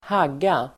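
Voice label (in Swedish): "hagga", Swedish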